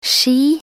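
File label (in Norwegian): "ski" Norwegian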